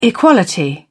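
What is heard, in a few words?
"equality", British English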